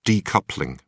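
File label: "decoupling", British English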